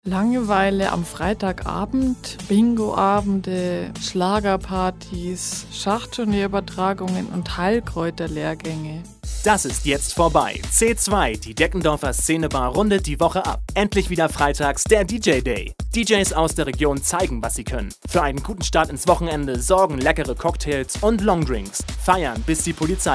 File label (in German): Werbung